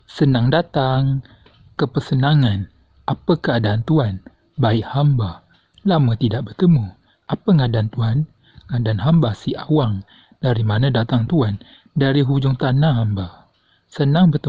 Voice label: phrases, Beka Melayu

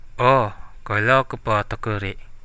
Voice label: yes a little, central dusun

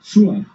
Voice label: "fire", Basque

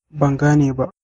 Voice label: no understand, hausa

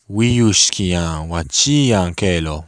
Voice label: meet, lakota

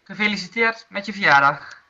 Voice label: happy birthday, dutch

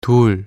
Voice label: 2 dul, Korean numbers

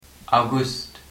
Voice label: august, luxembourgish

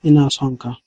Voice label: i love you, hausa

